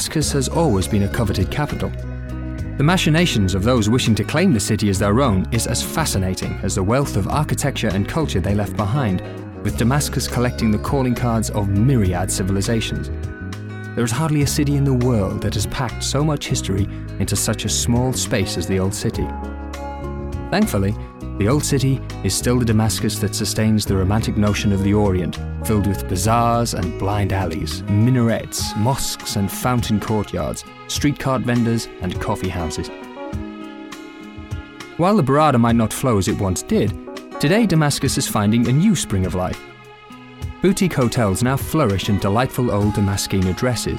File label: Travel Narration